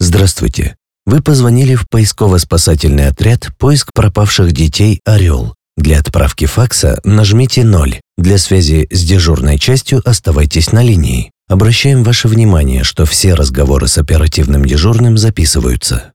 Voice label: russian ivr